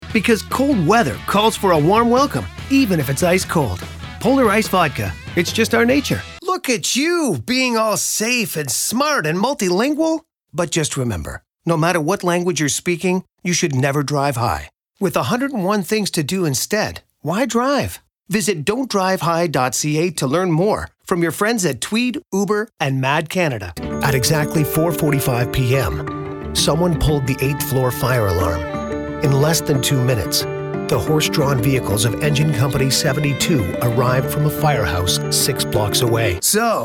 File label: commercial narration